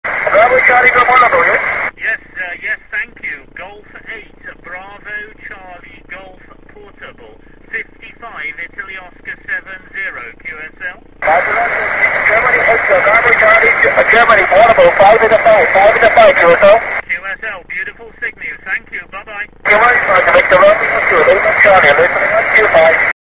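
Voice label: amateur radio contact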